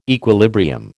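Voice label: "equilibrium", American English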